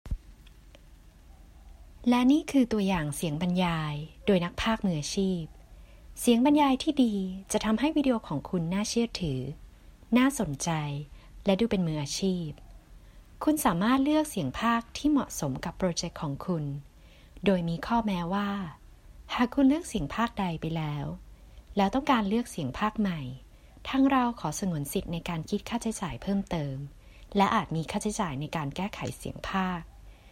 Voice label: 泰语女声, 动漫角色【灰姑娘】

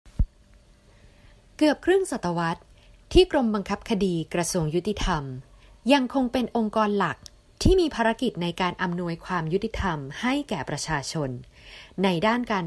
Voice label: 泰语女声, 清新自然

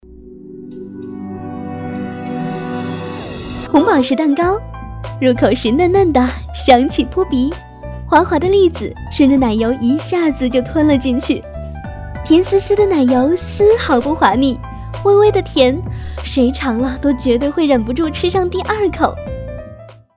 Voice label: 专业女声11, 【品质广告】甜美活力; 中文

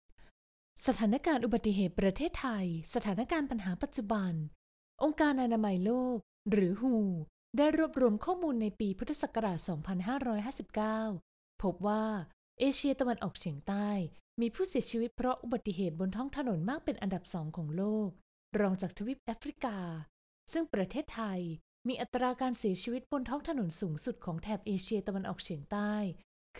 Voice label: 泰语女声, 动漫角色【活泼俏皮】

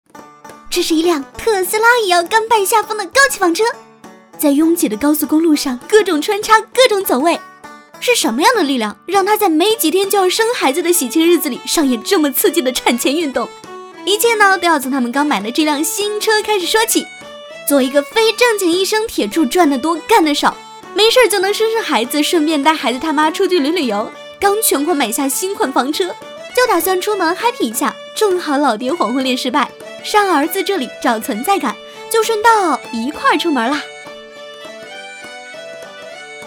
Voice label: 专业女声11, 【品质广告】高端质感; 中文

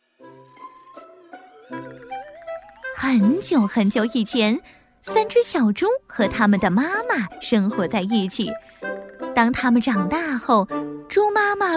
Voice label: 专业女声7, 旅游介绍【轻松】; 中文

